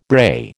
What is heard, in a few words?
"brae", American